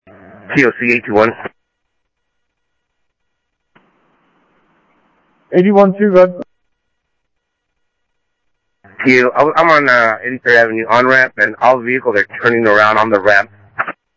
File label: scanner audio; Thompson Peak; ADOT AlrtOps; ArizonaDepofTran